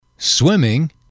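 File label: "swimming", English, male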